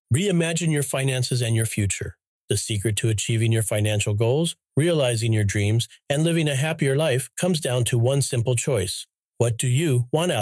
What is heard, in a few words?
audiobook